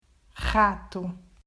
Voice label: "Rato", Portuguese